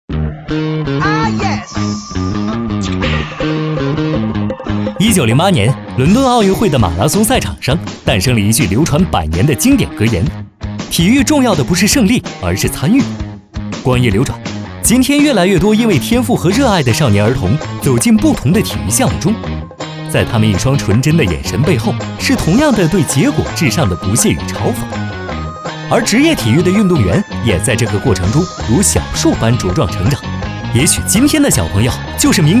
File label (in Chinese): 男, 年轻 动感宣传片-体育